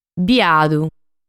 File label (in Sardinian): "Biadu", sardu